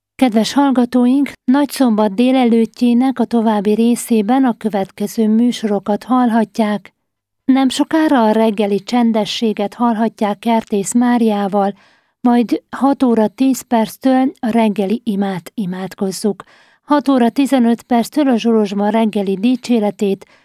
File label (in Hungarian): Musorismerteto; szombat reggel 6-kor; APRILIS